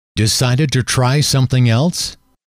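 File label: decided to try something else, male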